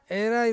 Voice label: "rai", Ansus; look for